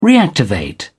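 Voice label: "reactivate", British English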